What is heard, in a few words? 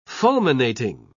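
"fulminating", British English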